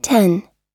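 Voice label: "ten", US English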